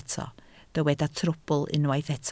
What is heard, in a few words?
"trwbl", Welsh